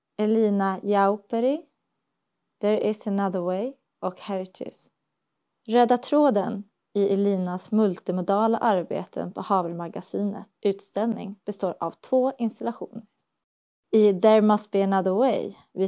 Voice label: Audioguide